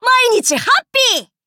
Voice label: upgrade, ja; cookie run